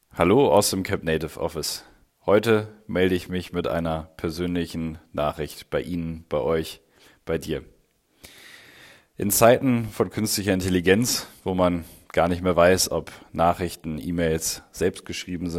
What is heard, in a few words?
voice message